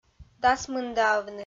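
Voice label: "дасмындаавны", Komi, female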